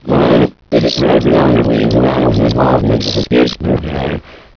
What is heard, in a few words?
gibberish